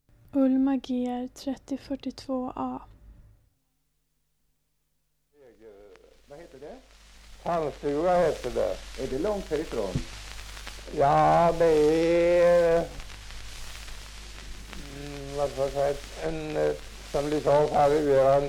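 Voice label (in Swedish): Godegård Östergötland, Svenska sagor, dialekter; Folkminnen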